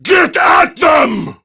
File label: Attack Prime Grineer Male; Tau; Battle Barks